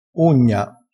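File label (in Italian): "ugna", dialetto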